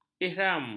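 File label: "ihram", Malay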